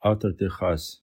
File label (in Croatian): romski; POP UP Zagreb